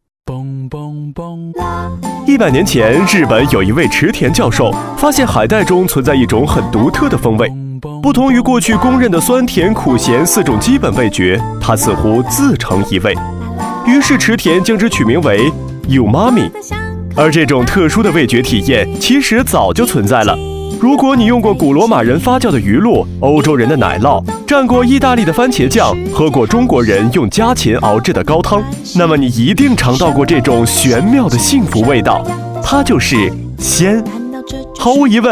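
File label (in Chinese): 男国大音-年轻解说类; 家乐鸡汁鲜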